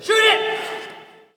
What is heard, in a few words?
Hockey Male Shoot It; Hockey Vocal Sounds